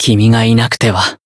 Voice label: Voice heal, jp; dunarith